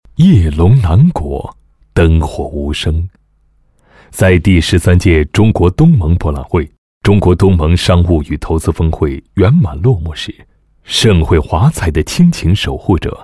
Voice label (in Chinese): 男 人物 配音; 追忆南宁供电局青秀供电分局局长